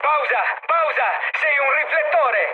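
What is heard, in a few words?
Italian searchlite mood med, speech; War Thunder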